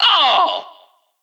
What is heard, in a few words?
Extreme Yeow